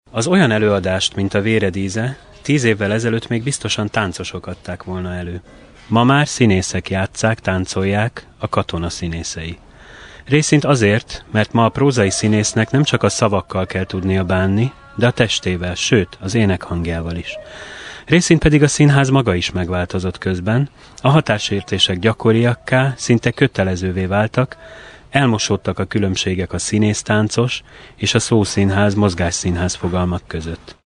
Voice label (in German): Ungarn M